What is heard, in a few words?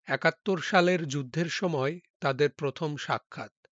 Male